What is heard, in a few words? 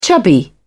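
"chubby", British English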